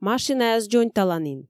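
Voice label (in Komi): машинаяс дзоньталанін, Komi, female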